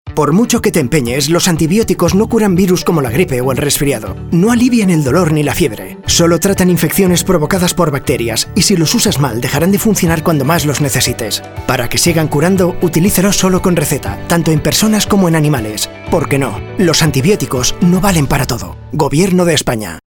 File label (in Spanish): radio cast; antibioticos